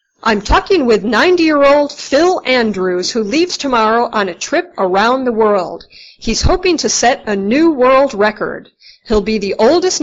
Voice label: Dialog